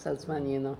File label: "Salzmanino", pronounciations; Fourth Way; Beelzebub